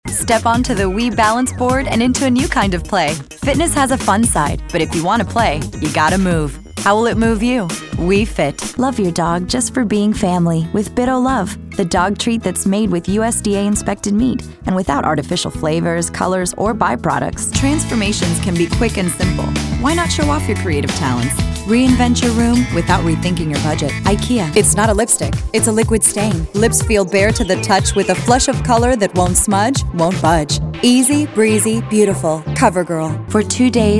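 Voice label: US, commercial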